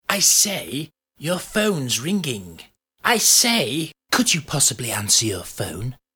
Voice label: Posh Man Ringtone, Plummy upper class eccentric; novelty ringtone; Terry Thomas style